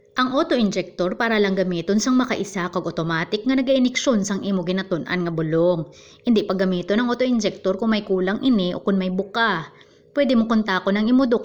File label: Hiligaynon